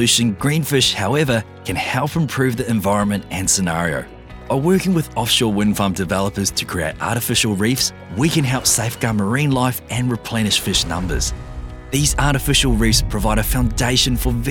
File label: Explainer, Voice Over